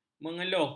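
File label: "mengeluh", Malay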